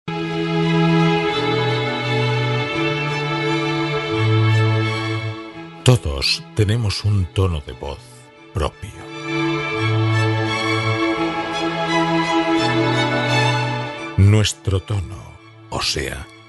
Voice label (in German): image, spanisch